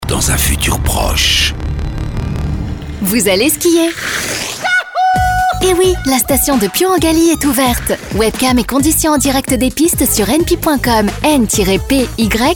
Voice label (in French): spot tres dynamique